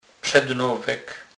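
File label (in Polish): "przednowek", Kurpie dialect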